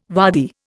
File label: "waddy", Indian